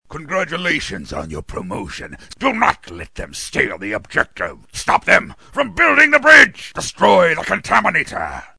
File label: General, Vilolent & Scheming; animation